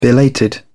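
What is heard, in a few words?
"belated", British English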